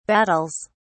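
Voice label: "battles", British English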